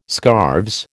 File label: "scarves", American